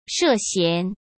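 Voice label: "涉嫌", Mandarin Chinese; hsk; chinese-flashcards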